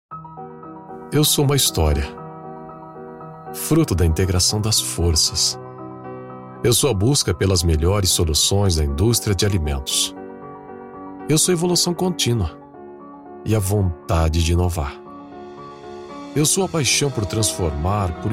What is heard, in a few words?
portuguese brazilian corporate videos